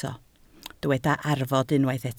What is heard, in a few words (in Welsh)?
"arfod", Welsh